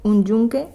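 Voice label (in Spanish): "un yunque", Spanish, Madrid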